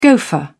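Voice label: "gofer", British English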